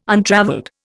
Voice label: "untravelled", Indian